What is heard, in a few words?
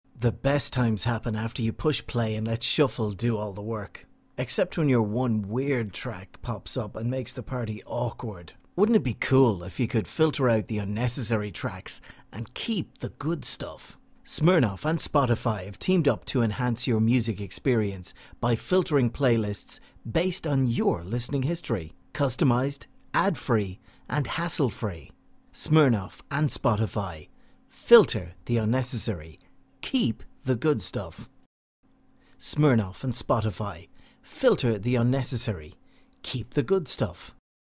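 English Irish, commercial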